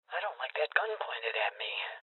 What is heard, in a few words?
Voices Hostage Taken Female Old